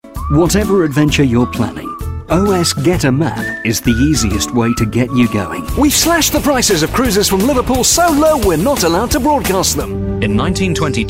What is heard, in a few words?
voiceover sampler